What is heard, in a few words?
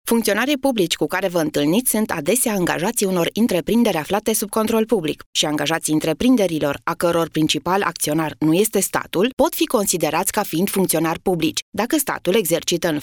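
Romanian, female, corporate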